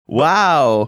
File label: drawn out wow